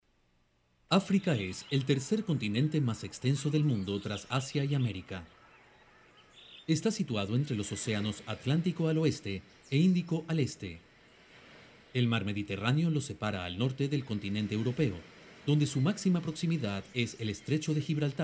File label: DOCUMENTARIE NARRATION